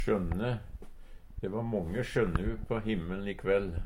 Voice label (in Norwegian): sjønne, Numedalsmål; Substantiv hokjønn; De va månge sjønnu på himmeln i kvell.